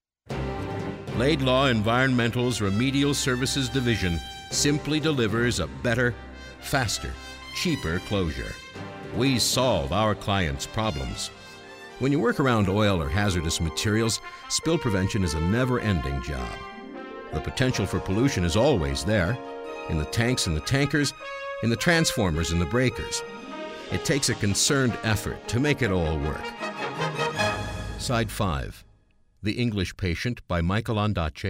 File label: narrate